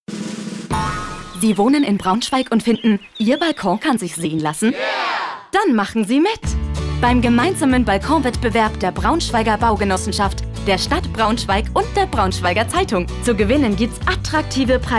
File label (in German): Werbespot